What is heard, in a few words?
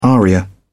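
"aria", British English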